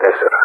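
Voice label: Speech, Greek, 4 calm; DCS; Ka-50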